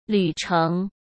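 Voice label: "旅程", Mandarin Chinese; hsk; chinese-flashcards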